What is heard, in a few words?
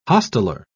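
"hosteler", British English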